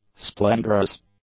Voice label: "splendorous", english; adjectives